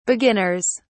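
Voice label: "beginners", en, uk British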